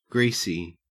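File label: "greasy", Australian English; pronunciations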